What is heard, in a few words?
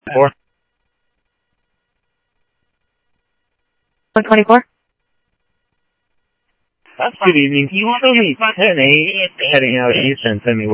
South Scottsdale Sheriff, scanner audio